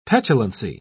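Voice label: "petulancy", English, British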